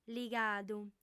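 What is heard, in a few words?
"ligadu", female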